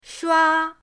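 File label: "shua1", Mandarin Chinese, first tone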